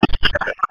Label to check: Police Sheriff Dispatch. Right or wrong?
Right